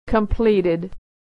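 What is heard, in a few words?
"completed", American English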